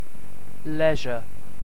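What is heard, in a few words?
"leisure", British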